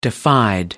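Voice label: "defied", British English, dictionary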